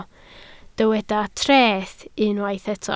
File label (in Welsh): "treth" Welsh